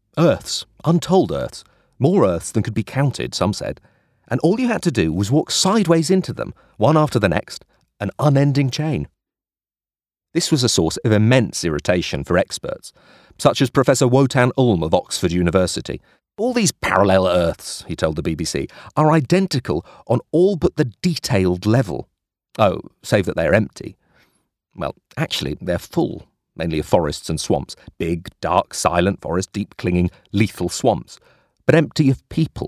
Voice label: Audiobook; The Long Earth